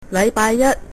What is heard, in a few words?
monday, cantonese